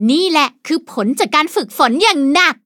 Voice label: levelup, th; Cookie Run